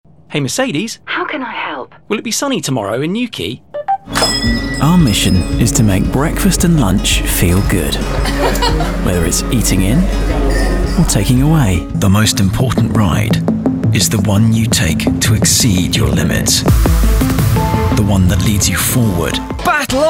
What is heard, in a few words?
young UK male voice over artist Commercial